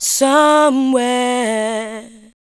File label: SPOKEN VOX, ADLIPS